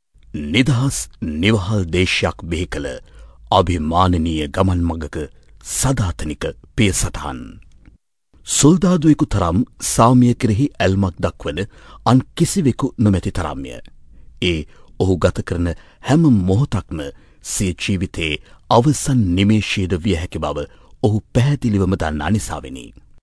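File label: Sinhala male voice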